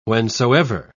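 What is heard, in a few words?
"whensoever", British English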